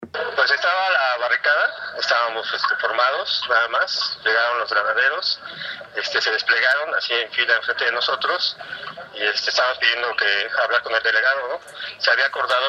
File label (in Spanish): testimonio